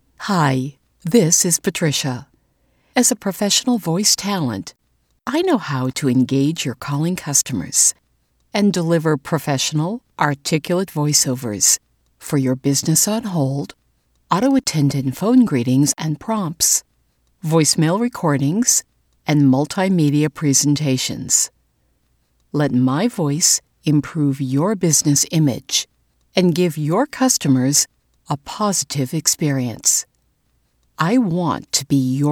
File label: Telephony IVR